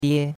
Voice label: "die1", chinois; Cours de Chinois

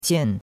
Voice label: "qian4", chinois; Cours-de-Chinois

